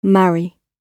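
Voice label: "marry", British English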